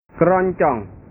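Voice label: "keroncong", Indonesian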